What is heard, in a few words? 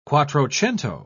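"quattrocento", British English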